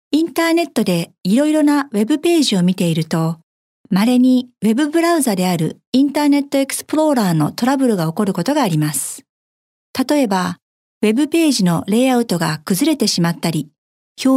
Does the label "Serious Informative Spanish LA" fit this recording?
No